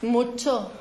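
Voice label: Voice Acting es Female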